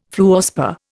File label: "fluorspar", Indian